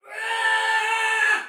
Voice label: Battle Cry Soldier Female; Dialog; Sinecure